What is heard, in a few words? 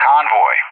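"convoy", English; JTAC; DCS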